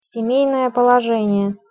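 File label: "semejnoe polozenie", Russian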